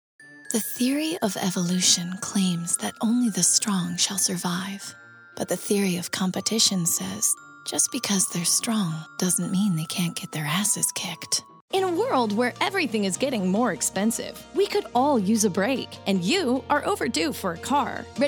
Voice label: commercial women

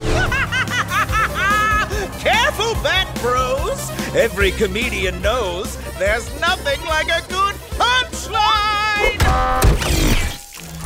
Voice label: animation men, voices men

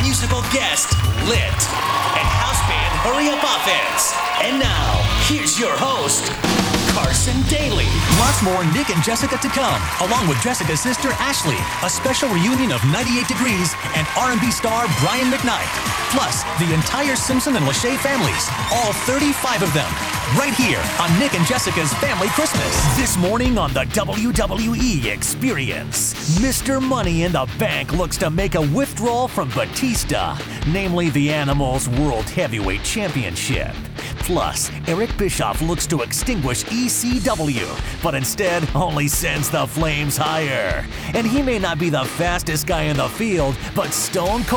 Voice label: show and stadium announcers

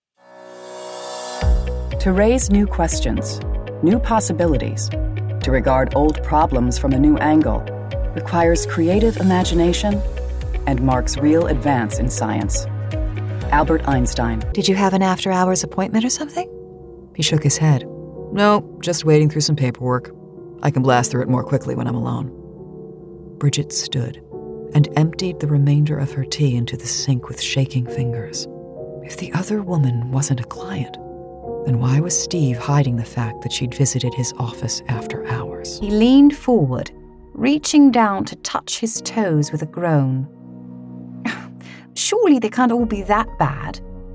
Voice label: audiobook women

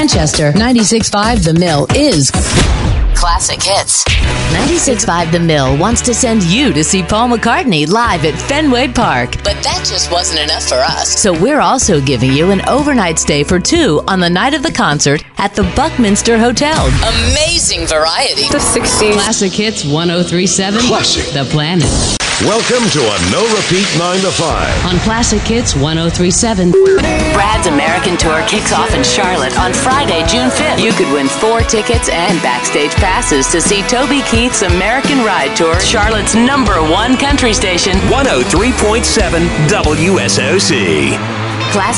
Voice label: radio, radio imaging

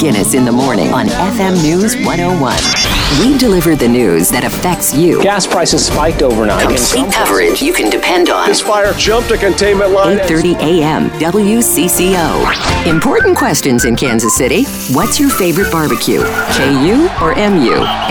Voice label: radio imaging women

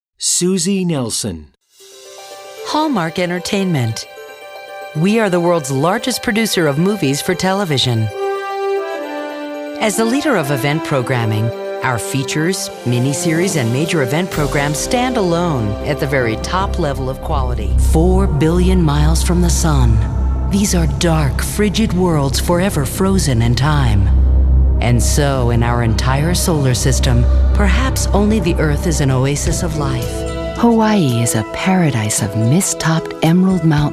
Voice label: narration

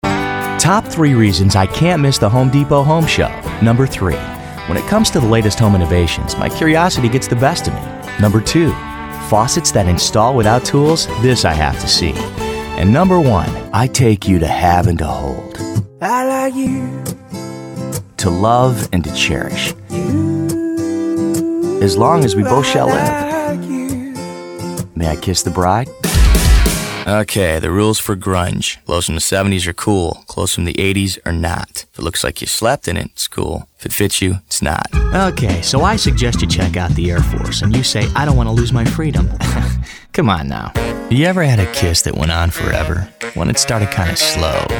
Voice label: commercial, men

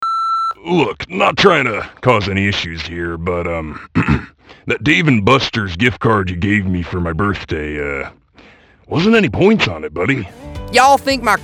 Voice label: animation men, voices men

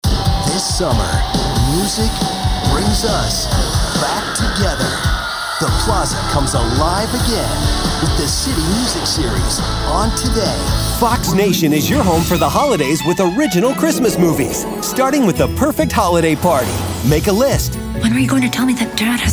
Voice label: promo men

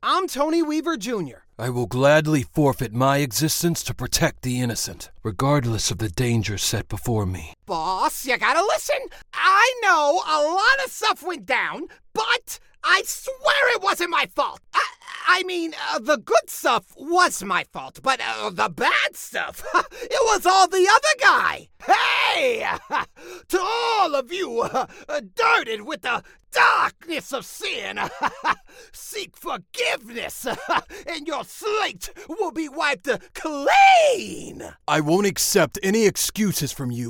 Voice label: animation men character, voices men

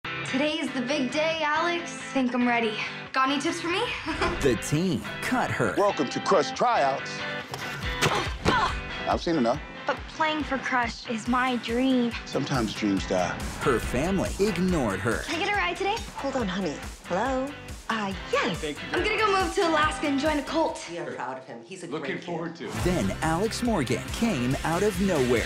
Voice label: trailers men

